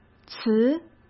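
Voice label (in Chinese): "词", Mandarin; chinese-flashcards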